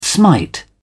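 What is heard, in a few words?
"smite", British English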